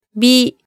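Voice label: "bi", Japanese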